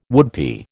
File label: "woodpie", American